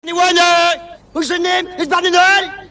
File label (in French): anglais